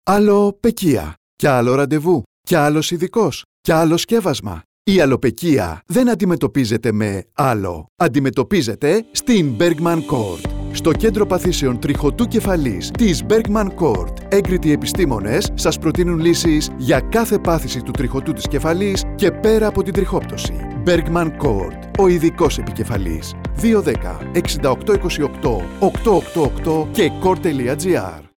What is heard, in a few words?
radio spots; alwpekia kentro pathisewn kialo; athens